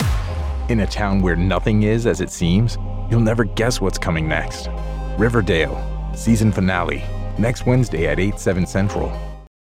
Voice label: PROMO